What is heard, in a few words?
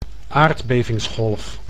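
"aardbevingsgolf", Dutch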